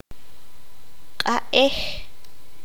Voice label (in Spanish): fuego, qanjobal